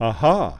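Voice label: "Aha", male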